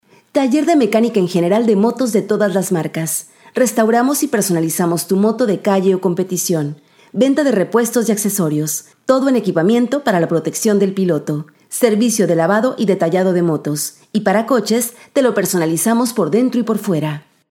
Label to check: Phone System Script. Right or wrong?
Wrong